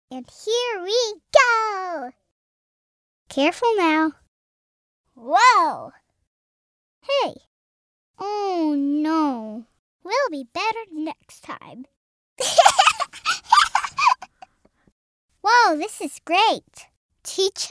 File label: 6 yrs old